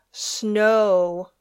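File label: "snow", English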